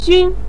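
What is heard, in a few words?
"xun" neutral tone, Mandarin Chinese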